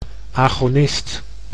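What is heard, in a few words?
"agonist", Dutch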